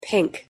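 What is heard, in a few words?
"pink", English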